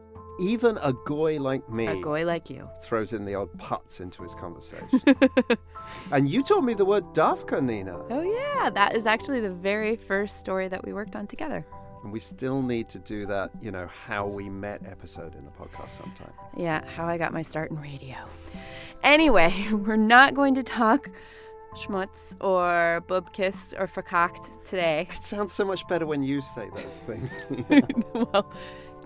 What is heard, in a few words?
Arabic s Jewish dialect